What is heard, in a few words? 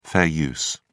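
"fair use", British English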